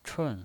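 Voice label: "chun", Mandarin Chinese pinyin voice, fourth tone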